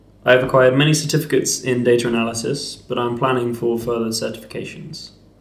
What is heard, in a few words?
interviewee male filler